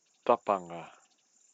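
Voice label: "tapanga", Māori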